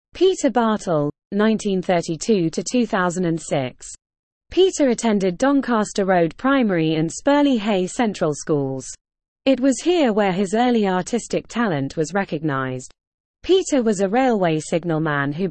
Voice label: Female